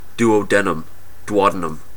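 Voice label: "duodenum", US English; pronunciation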